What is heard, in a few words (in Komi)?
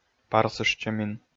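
"парсыштчӧмин", Komi, male; audio dict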